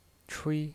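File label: "chui", Mandarin Chinese pinyin voice, first tone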